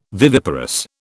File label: "viviparous", British